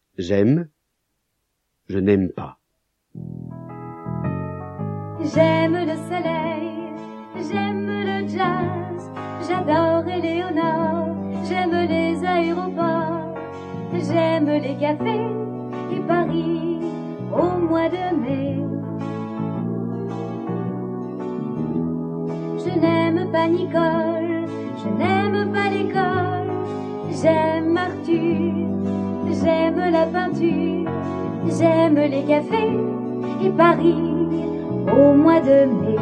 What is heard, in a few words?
French